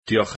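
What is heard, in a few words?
thanks Welsh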